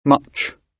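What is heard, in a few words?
"much", British English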